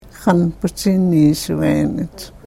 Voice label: "xanpuchiniishveenach", karuk

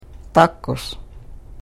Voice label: "takus", karuk

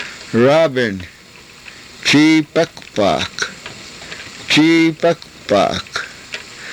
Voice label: "chiipakpaak", Karuk

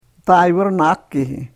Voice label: karuk

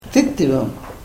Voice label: karuk

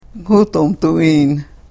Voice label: karuk

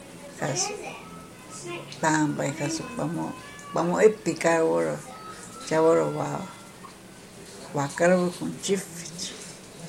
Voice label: karuk, sentences

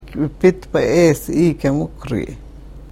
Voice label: karuk

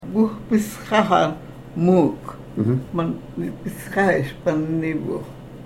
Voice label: karuk

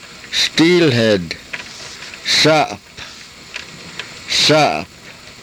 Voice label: "saap", karuk

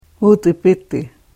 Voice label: karuk

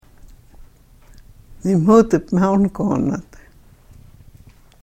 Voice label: karuk

